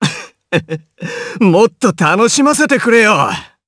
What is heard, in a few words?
Vox Skill jp; Kibera; kings raid